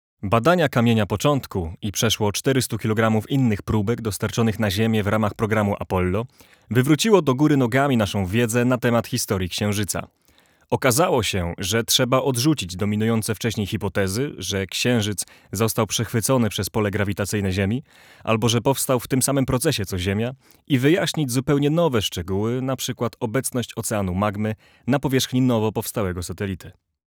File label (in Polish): Lektor; Cocoon